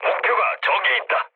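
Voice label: Korean near waypoint mood low; War Thunder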